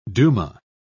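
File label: "duma", British English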